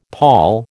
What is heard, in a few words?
"pawl", American